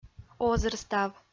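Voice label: "озырстав", Komi, female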